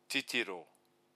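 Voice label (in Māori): "titiro", Māori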